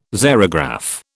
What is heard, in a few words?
"xerograph", British